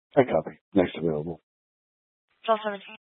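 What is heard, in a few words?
Police 1 Dispatch, Scanner audio recording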